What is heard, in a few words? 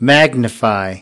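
"Magnify", American English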